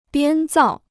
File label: "编造", Chinese